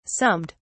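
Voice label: "summed", British English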